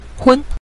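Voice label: "hun", Mandarin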